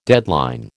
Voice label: "deadline", American

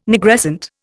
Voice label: "Nigrescent", Indian

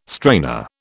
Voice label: "strainer", British

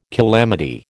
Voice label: "calamity", American

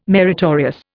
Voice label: "meritorious", Indian

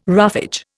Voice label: "roughage", Indian

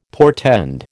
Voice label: "portend", American

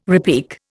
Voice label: "repique", Indian

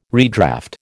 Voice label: "redraft", American

